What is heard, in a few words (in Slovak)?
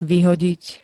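"vyhodiť", Slovak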